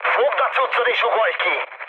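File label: Hungarian bailout mood high, speech; War Thunder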